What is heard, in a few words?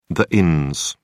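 "inns", British English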